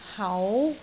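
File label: "hau2", Cantonese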